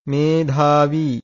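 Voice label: "medhāvī", Pali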